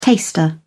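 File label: "taster", British English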